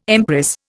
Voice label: "empress", Indian